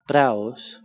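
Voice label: "prh2wos", Proto-Indo-European; eastern origins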